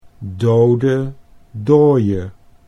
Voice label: "dode dooie", Dutch

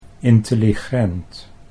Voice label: "intelligent", Dutch

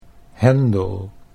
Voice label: "hendel", Dutch

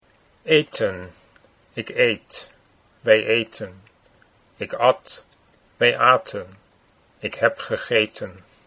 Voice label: eten ik eet wij eten, Dutch

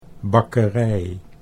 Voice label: "bakkerij", Dutch

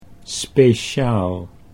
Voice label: "speciaal", Dutch

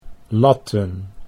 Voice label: "latten", Dutch

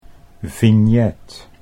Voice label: "vignet", Dutch

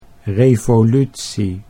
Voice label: "revolutie", Dutch

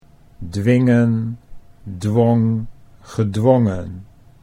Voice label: "dwingen dwong gedwongen", Dutch

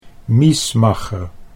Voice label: "miesmacher", Dutch

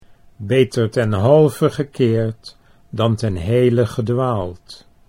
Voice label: "beter ten halve gekeerd dan", Dutch

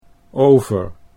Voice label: "over", Dutch

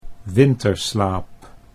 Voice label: "winterslaap", Dutch

